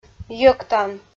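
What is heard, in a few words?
"йӧктан", Komi, female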